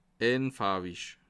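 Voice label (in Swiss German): "anfarwich", Bas Rhin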